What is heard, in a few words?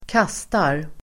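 "kastar", Swedish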